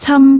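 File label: "cam1", Cantonese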